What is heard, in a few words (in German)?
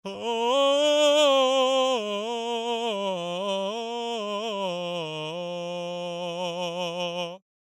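Vokal-Phrase ohne Reel ADT, ohne Hall und jegliche andere Bearbeitung; Bass; Waves Abbey Road Studios Reel ADT; Soundiron Voice of Rapture: The Bass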